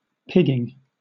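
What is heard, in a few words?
"pigging", British English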